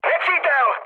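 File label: enemy detected, Czech, mood med; War Thunder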